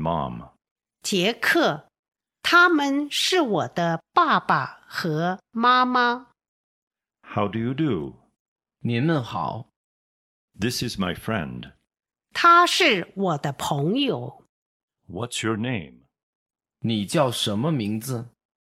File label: Dialog